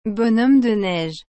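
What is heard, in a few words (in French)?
"bonhomme de neige", French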